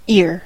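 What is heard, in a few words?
"ear", English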